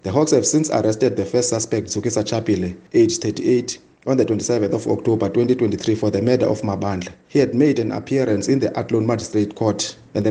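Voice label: English; Suspect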